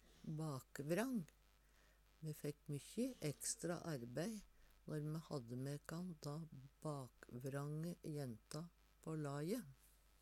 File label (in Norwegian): bakvrang, Numedalsmål; Me fekk mykkjy ekstra arbei når me hadde denna bakvrange jenta mæ på laje.